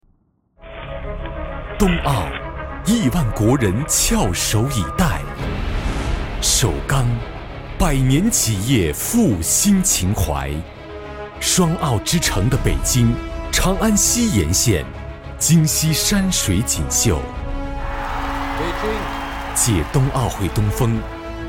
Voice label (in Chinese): 大气浑厚; 首钢冬奥